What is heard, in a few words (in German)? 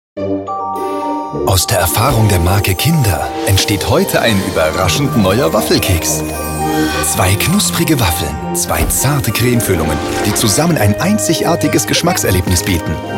werbung; ferrero kindercards